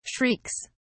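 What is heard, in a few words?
"shrieks", British English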